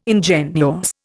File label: "ingenuous", Indian